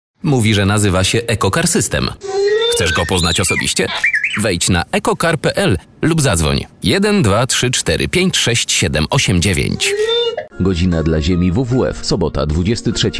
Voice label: male polish voice over talent sales

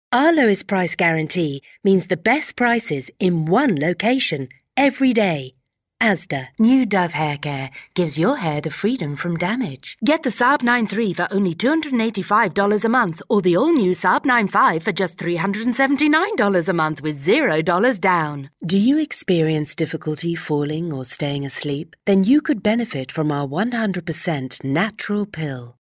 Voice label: female british voice over sales; english british

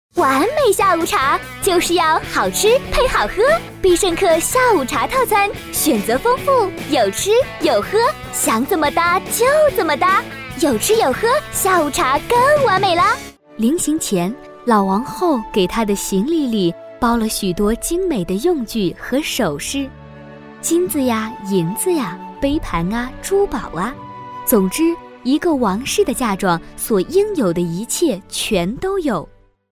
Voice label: female chinese voice over sales, mandarin

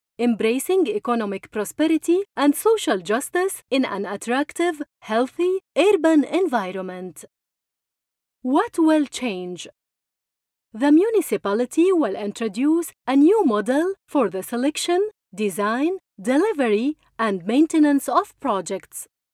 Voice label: female arabic voice over english

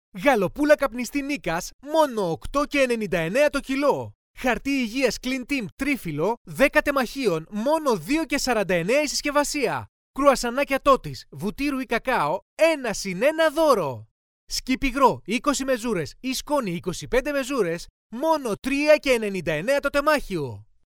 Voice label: male greek voice over sales

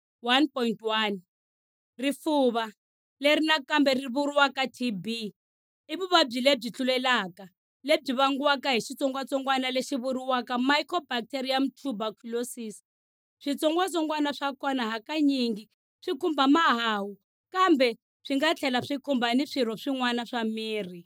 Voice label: female tsonga voice over narrative

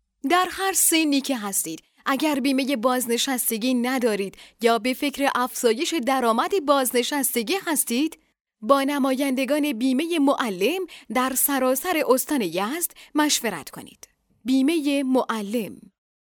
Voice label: female farsi voice over narrative

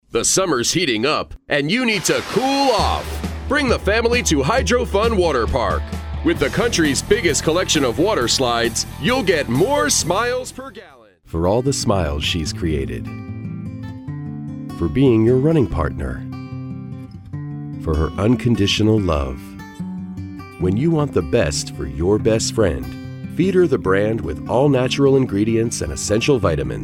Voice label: male american voice over sales, english american

